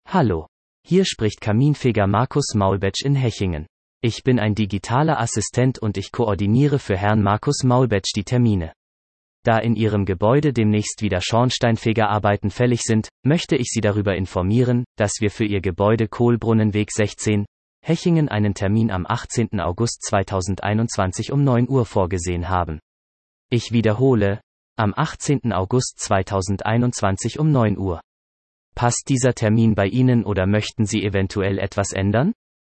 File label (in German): Kundenanruf